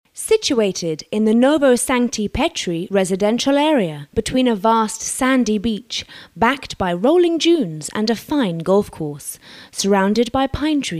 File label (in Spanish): locutora inglesa; Speaker Inglese